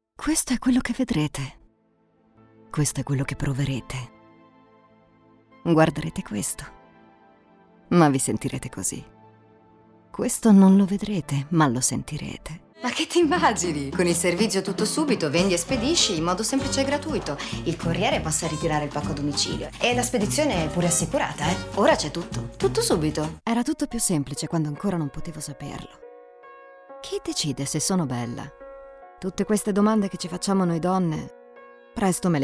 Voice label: commercial, female voices